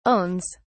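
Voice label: "owns", British English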